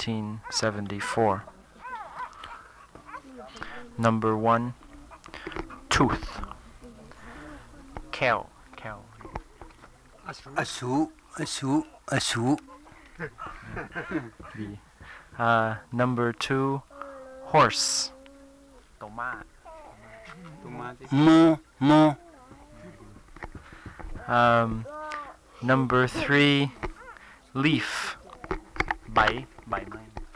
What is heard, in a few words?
Lahu